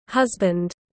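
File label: "Husband", English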